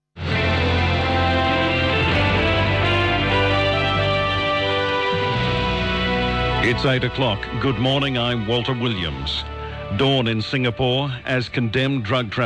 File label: News Reader